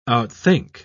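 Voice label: "outthink", English, British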